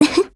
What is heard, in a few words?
MOOD JP